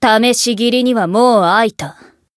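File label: Voice variable chant, Japanese; isuka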